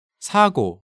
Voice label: "Sago", Korean; Hangeul sounds

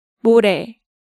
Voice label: "more", Korean

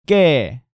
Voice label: "kkae", Korean

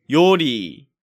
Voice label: "Yori", Korean

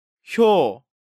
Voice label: "Hyo", Korean

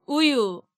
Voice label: "Uyu", Korean

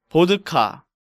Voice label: "Bodka", Korean

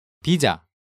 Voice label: "Bija", Korean

